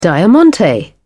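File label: "diamante", British English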